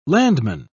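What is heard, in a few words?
"landman", British English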